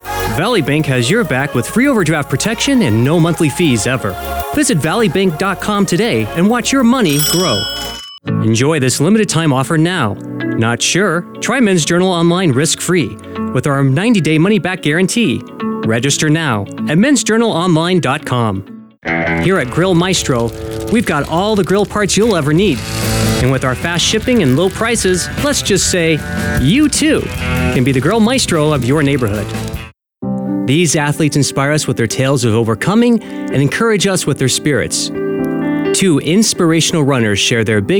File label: Commercial